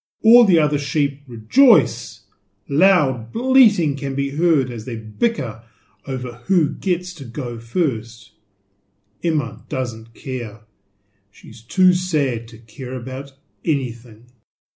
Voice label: englisch

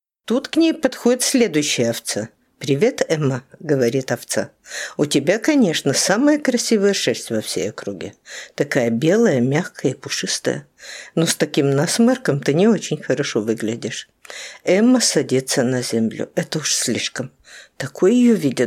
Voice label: russisch

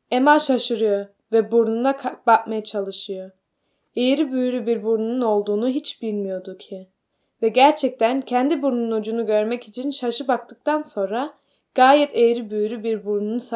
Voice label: türkisch

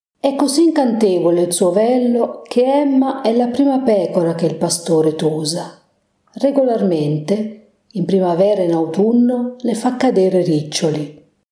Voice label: italienisch

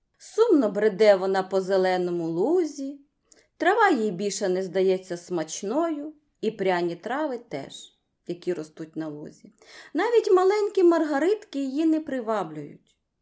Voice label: ukrainisch

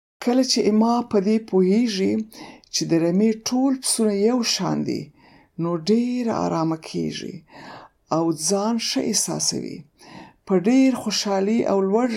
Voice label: paschtu

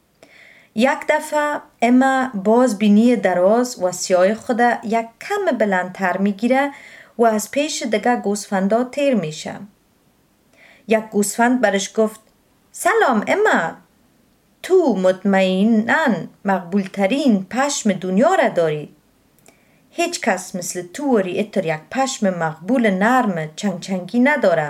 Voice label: dari

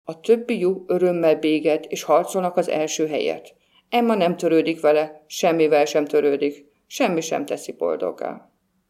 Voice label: ungarisch

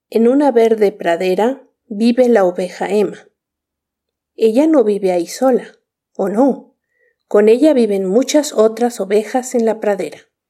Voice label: spanisch

